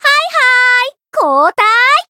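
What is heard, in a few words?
Voice variable chant, Japanese; bivette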